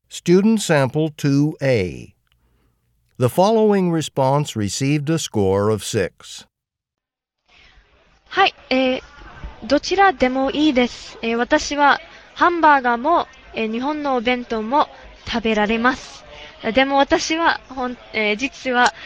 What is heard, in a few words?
japanese conversation; Conversation 2 Sample A (Score of 6)